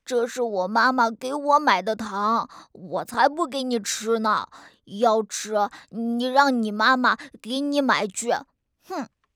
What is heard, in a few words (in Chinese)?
正太